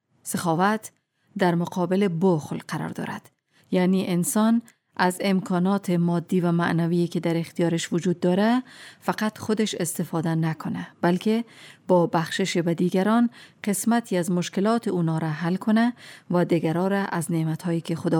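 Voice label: Dari Afghanistan female narrative; dari voice over talent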